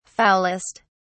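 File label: "foulest", English, British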